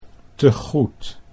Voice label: "tegoed", Dutch